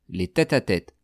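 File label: "les tete a tete", French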